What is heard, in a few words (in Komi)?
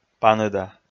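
"паныда", Komi, male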